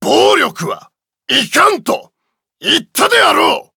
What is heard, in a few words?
victory, jp